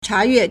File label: "cha2yue4", Chinese